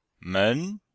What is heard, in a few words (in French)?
"men2", chinois; Cours de Chinois